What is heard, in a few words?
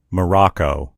"moroco", en US; gcompris; geography